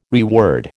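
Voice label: "reword", American English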